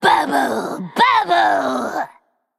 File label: Female Undead